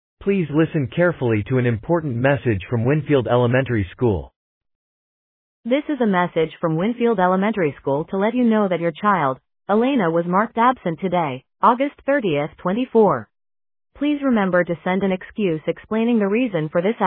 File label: Voicemail